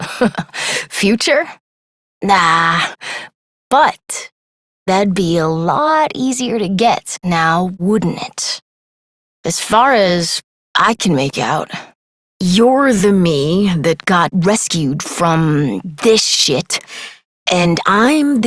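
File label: Adult, English; New War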